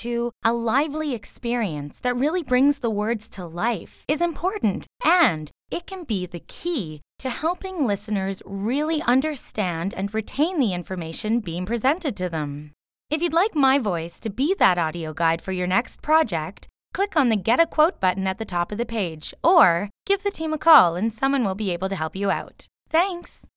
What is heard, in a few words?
elearning